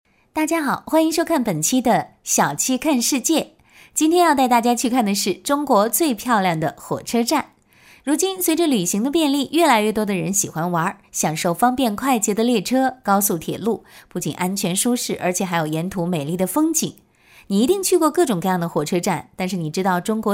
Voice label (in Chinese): 女 课件 旅游类